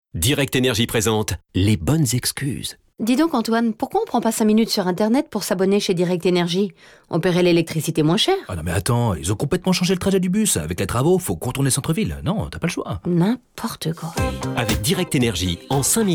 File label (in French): pub comedie; direct energy